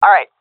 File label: affirmation, seal fem; vo; Counter Strike Model Pack; CS:GO